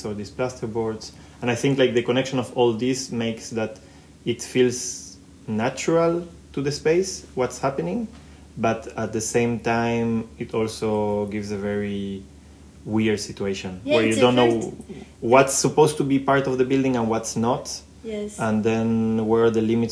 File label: Podcast with noise